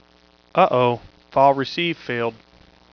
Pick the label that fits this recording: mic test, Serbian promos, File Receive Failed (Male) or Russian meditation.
File Receive Failed (Male)